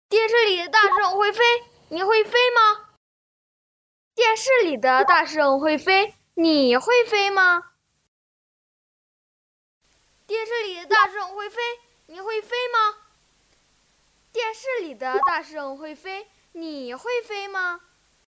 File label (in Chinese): 真童; 真童配音